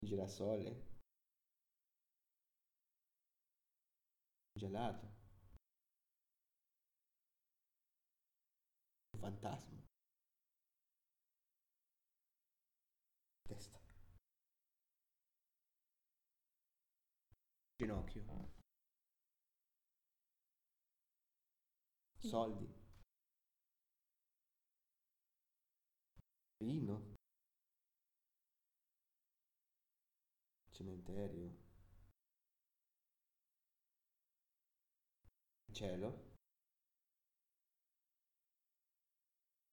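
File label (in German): Zusammengefugte Worter Langsam Italienisch, male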